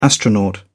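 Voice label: "astronaut", British English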